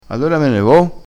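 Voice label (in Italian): "fo", vocabolario dialettale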